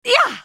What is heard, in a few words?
effort; Katarina; Character Voices - English